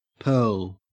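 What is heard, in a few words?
"pearl", Australian English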